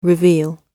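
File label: "reveal", British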